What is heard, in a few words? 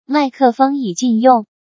mic close; rockchip rk3308; cmcc soundai